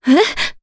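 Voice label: Vox Sur Japanese; Maria; kingsraid; jp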